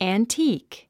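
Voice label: "antique", English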